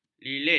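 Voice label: "lilit", Malay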